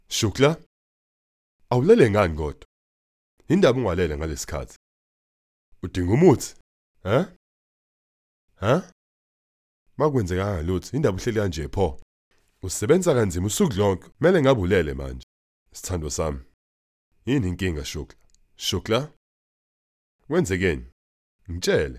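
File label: Zulu male sample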